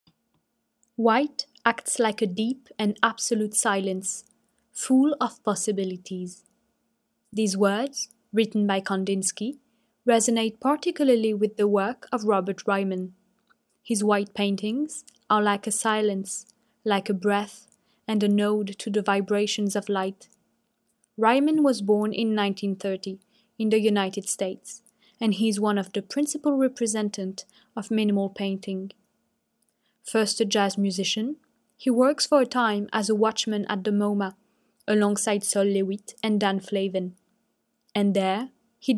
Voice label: GB adulte